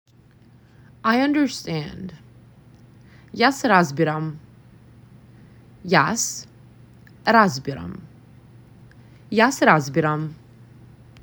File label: I understand, macedonian; the talking macedonian phrasebook